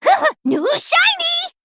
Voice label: Female Kobold